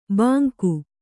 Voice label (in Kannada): "ಬಂಗು", Kannada